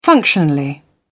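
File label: "functionally", British English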